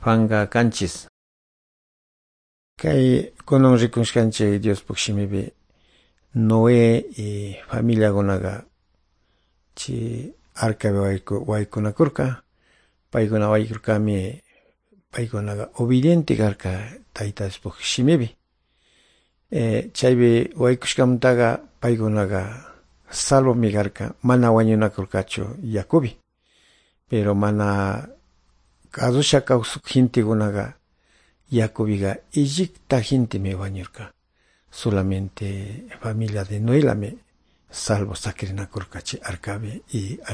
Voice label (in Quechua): good news quichua de calderon